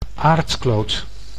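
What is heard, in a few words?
"aardkloot", Dutch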